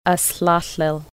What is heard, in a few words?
live there, Lushootseed